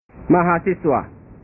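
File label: "mahasiswa", Indonesian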